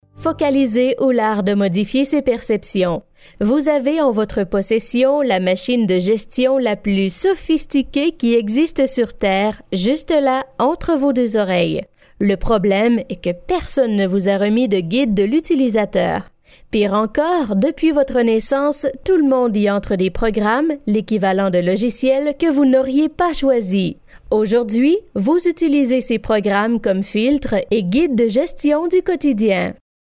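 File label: French Canada, female, voice over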